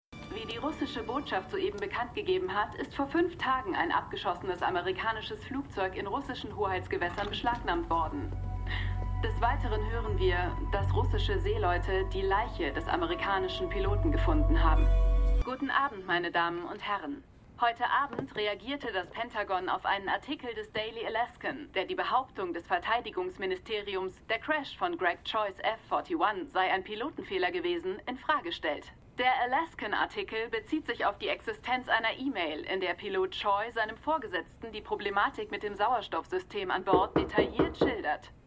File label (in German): Nachrichtensprecherin; AlaskaDaily